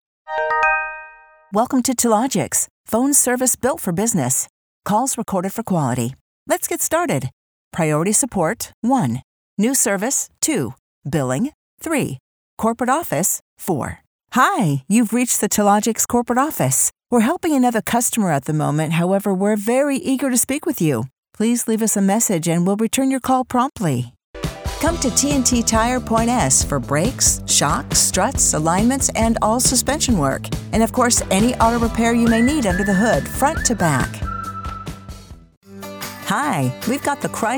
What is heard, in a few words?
IVR On Hold